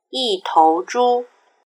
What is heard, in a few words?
"yitouzhu", Chinese; measure-animals